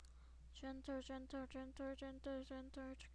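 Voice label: "gentle", spoken word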